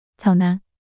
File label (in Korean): "전화" 단어 여자